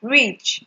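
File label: "reach", Nigerian Pidgin, female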